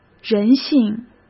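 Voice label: "人性", Mandarin Chinese; chinese flashcards; hsk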